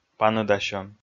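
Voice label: "паныдасьӧм", Komi, male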